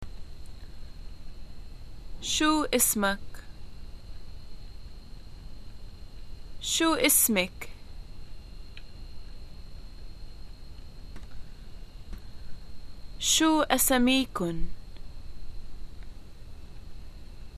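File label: introductions what's your name, Lebanese arabic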